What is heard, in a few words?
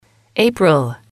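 "april", English, female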